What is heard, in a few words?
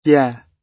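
"yeah", British English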